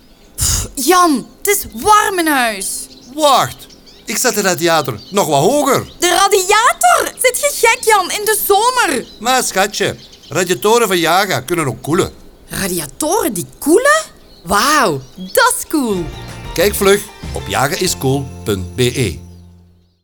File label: radio spot